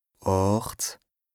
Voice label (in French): "ààcht", lexique indispensable bas rhin, Alsace; 8